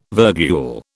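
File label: "virgule", British English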